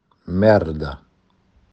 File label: "Scheisse", "merda"